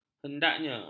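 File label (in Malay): "hendaknya", Malay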